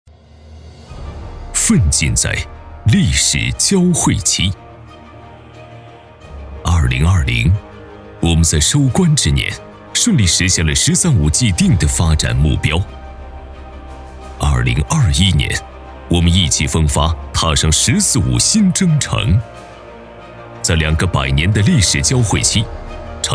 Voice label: 男 汇报类 年度汇报片; 北京城建

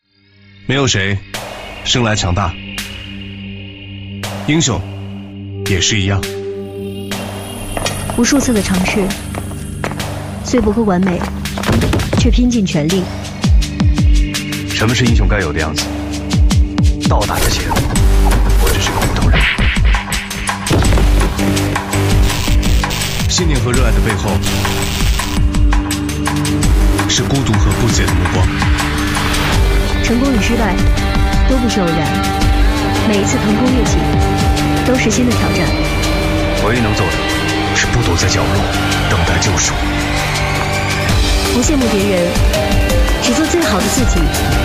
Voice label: 女 素人广告 热血; 乐融Letv-Y55C漫威版-超级英雄-热血上市

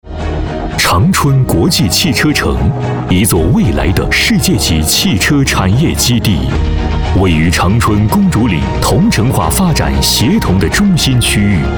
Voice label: 男 专题 企业宣传

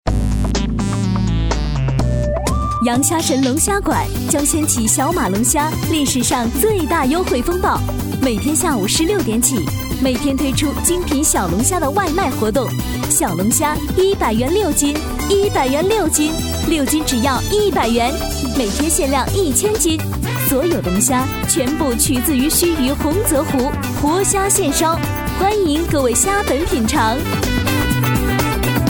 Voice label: 广告 龙虾馆

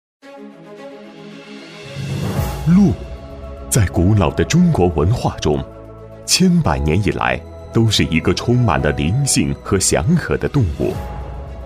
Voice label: 男 宣传片 高端大气 公司介绍; 福鹿谷公司介绍

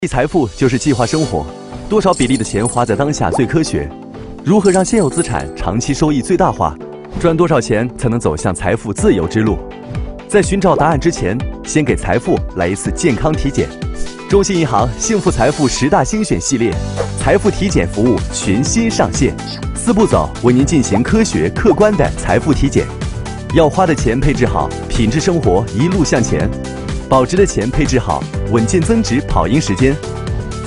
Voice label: 男 朴素亲和 MG; 中信银行

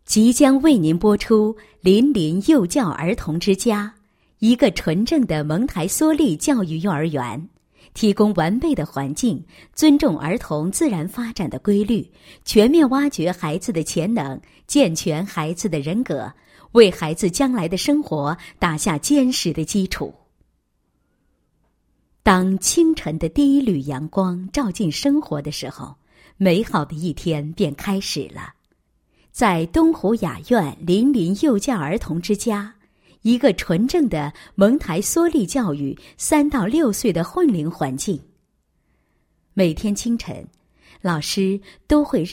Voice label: 女-学校; 林璘幼教儿童之家

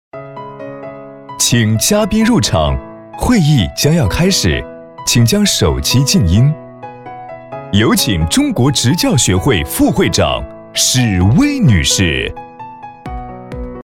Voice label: 男-颁奖-大气-亲切--会议提示音

